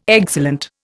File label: "excellent", Indian